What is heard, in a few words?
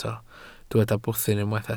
"bwthyn", Welsh